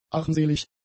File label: "armselig", German; adjectives